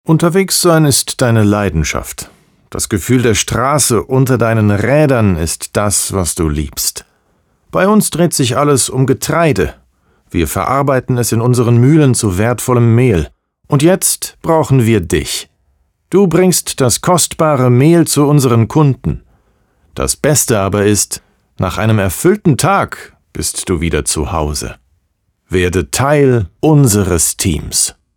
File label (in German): DE DE LKW Werkfahrer recruiting audition